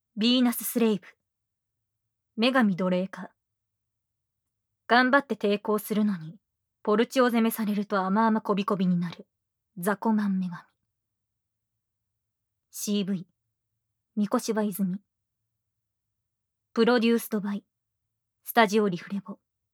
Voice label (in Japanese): タイトルコール, ASMR